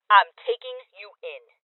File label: Order To Surrender Female, Voices